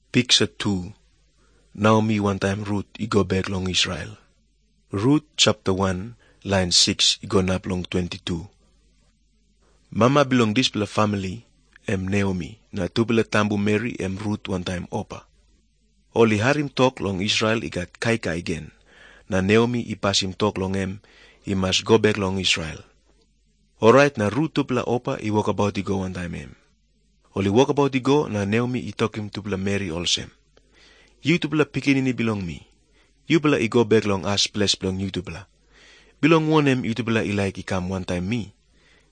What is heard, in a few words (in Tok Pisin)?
tok pisin, drama; png nt drama; servants of god